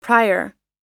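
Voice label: "prior", American English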